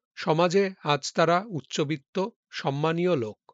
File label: Male